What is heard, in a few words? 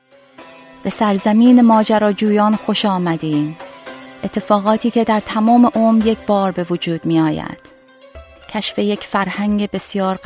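Farsi; Farsi, Middle Eastern, Male, 20s-30s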